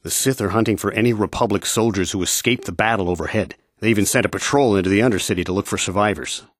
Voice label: male